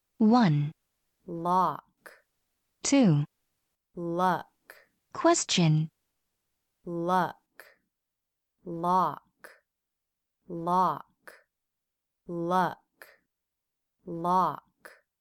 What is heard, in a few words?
"lock" "luck"